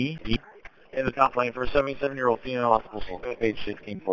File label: Police Sheriff Dispatch